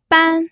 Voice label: "ban1", pinyin

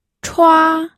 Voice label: "chua1", pinyin

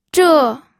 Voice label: "zhe4", pinyin

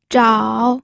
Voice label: "zhao3", pinyin

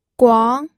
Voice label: "guang2", pinyin audio

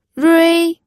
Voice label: "rui1", pinyin

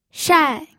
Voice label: "shai4", pinyin

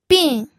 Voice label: "bin4", pinyin

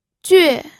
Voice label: "jue4", pinyin

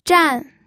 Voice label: "zhan4", pinyin

